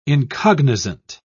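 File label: "incognizant", British English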